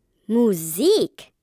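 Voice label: "music", German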